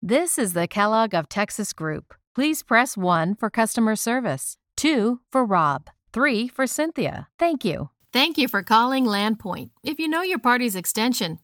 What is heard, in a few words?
Ivr